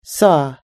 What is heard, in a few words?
"saw", us-english